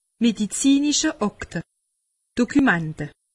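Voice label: "medizinische akte", Alsatian; medecine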